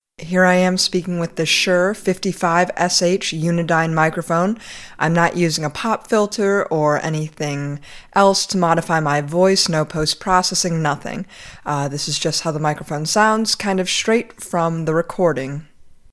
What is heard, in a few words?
Shure 55SH microphone